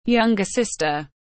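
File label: "Younger sister", English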